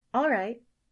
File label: girl "alright"; Inseminator